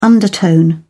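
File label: "undertone", British English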